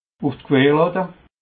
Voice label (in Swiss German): "uf d querlatte", alsace